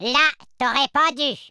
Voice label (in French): you'll regret that, French speech